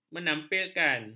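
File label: "menampilkan", Malay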